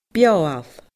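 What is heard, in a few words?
"beothail", Scottish Gaelic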